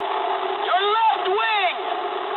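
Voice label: English left wing mood low; War Thunder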